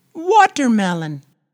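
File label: "watermelon", English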